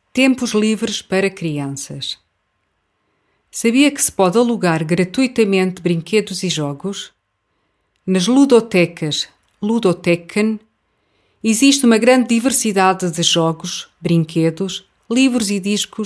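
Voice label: Portuguese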